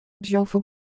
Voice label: Ewe, ideophones; Shape

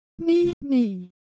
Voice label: Korean, Ideo Phones

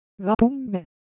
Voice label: Japanese Shape, Ideo Phones

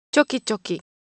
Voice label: Japanese Sound, Ideo Phones

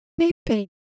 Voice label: Korean, Ideo Phones; Shape

